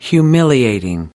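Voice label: "humiliating", American English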